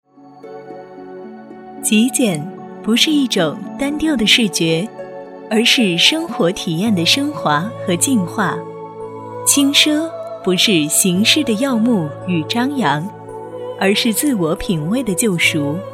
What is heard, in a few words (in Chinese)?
广告轻奢